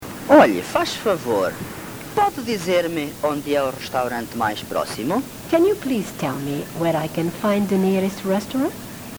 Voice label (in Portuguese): "onde resto", portuguese